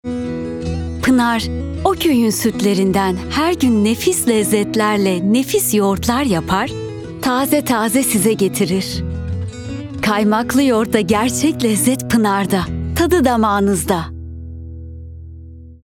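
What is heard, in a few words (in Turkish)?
sicak sakin, seslendirme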